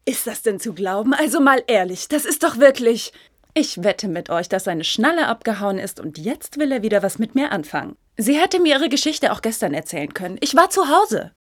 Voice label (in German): Voice Acting Empoert